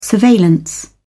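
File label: "surveillance", British English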